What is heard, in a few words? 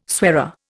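"soirée", Indian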